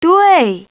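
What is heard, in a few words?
"yes", female; TALKNOW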